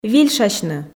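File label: "вильшасьны", Komi, female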